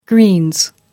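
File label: "greens", British English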